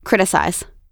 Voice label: "criticize", us